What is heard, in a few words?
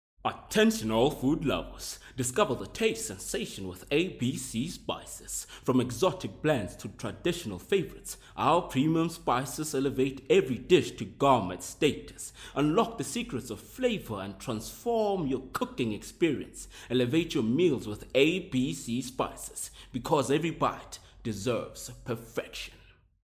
English Hard Sell